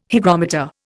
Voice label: "hygrometer", Indian